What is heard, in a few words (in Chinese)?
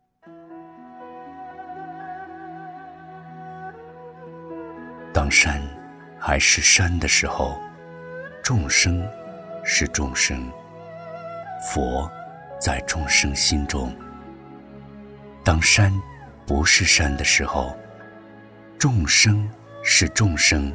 男-朗诵经文-佛说; 千手观音